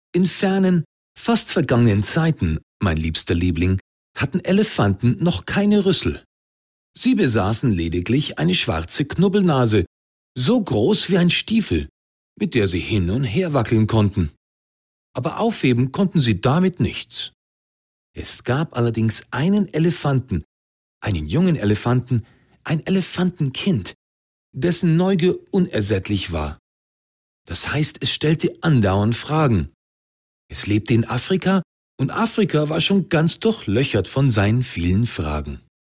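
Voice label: German; Kipling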